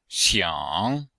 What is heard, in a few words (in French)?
"xiang3", chinois; Cours-de-Chinois